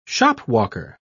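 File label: "shopwalker", British English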